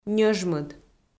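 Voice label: "ньӧжмыд", Komi, female; audio dict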